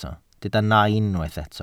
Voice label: "nain", Welsh

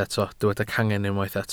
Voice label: "cangen", Welsh

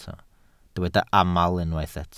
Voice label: "aml", Welsh

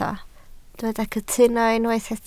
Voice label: "cytuno", Welsh

